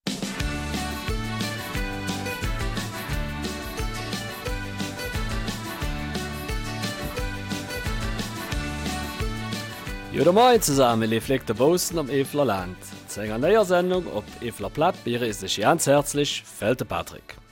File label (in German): Eifeler Mundart; Brot backen nach alter Tradition